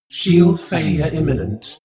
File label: space shuttle female status report